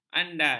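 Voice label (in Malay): "andai", Malay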